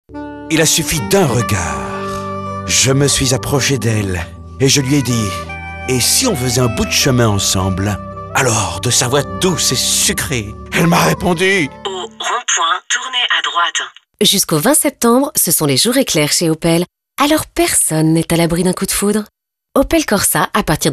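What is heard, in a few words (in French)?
spot, spots radio, automobiles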